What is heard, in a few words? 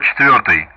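Speech Russian; DCS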